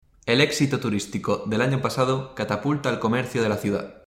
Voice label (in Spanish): espanol oral